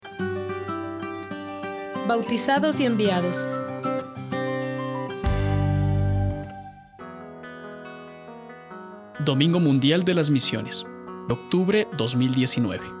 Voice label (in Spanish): Domund espanol; MDomund 2019